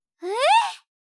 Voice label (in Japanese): ええ！？, 相づち・反応系【ネガティブ】